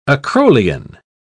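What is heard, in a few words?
"acrolein", British English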